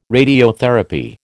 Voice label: "radiotherapy", American

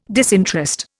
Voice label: "disinterest", Indian

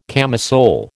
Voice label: "camisole", American

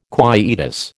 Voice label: "quietus", American

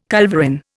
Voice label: "culverin", Indian

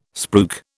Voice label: "spruik", British

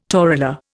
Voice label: "torula", Indian

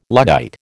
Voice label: "Luddite", American

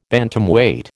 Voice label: "Bantamweight", American

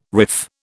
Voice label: "riff", British

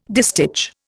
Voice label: "distich", Indian

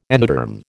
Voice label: "endoderm", American

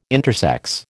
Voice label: "intersex", American

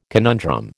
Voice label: "conundrum", American

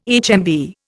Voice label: "HMV", Indian

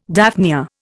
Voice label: "daphnia", Indian

